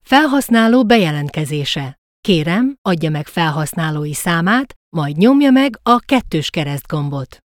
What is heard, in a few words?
Agent Login, hungarian